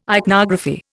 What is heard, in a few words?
"ichnography", Indian